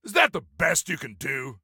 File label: Taunt Obnoxious, Soldier Male; Soldier Male 03